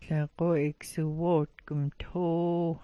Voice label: "'wootk", smalgyax